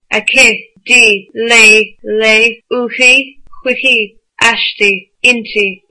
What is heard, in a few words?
"her", Irish